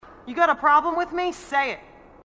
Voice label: marine female, sassy